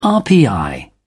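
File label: "rpi", British English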